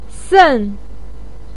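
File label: "sen", Mandarin Chinese, fourth tone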